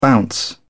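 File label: "bounce", British English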